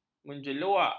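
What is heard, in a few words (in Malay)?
"menjeluak", Malay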